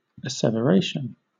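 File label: "asseveration" English, British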